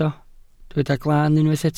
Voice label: "glan", Welsh